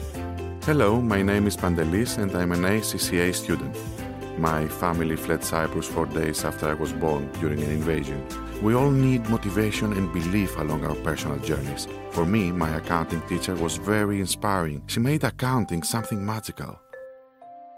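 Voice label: EL AccEN, Greek accented English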